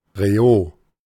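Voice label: German "Rehaut"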